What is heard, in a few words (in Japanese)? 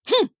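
「ふんっ」, 女の子 オラオラ系少女 戦闘ボイス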